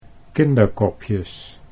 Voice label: "kinderkopjes", Dutch

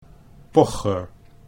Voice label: "pocher", Dutch

